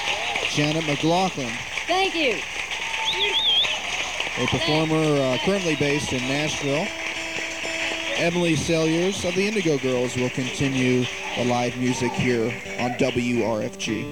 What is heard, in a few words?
radio announcer